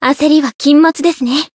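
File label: Voice growth Japanese; veina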